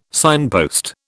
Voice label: "signpost", British